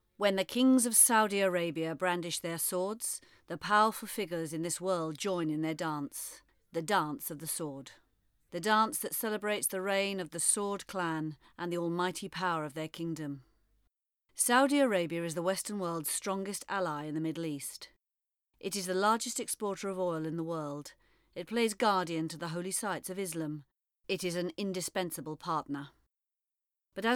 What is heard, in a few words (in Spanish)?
narradora